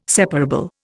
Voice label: "separable", Indian